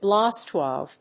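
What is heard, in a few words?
"blast wave", English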